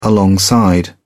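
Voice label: "alongside", British English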